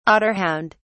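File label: "otterhound", British English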